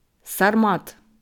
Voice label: "Sarmat", ukrainische Aussprache